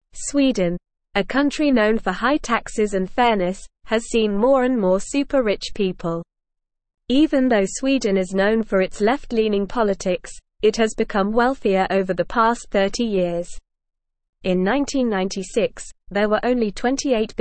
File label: English NORMAL Reading